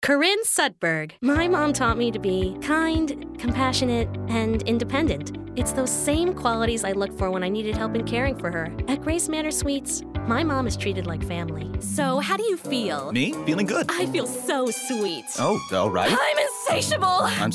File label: Commercial